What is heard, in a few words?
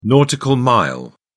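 "nautical mile", British English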